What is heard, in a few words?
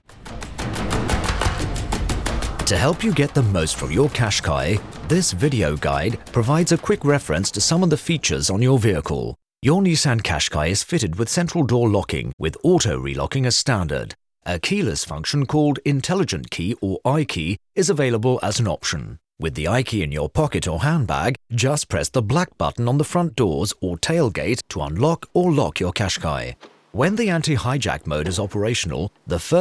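voice over; Nissan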